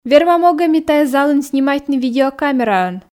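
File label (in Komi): вермам огӧ ми тайӧ залын снимайтны видеокамераӧн, Komi, female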